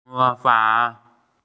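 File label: "imitate", Mandarin; mo2fang3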